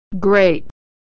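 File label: "great", American English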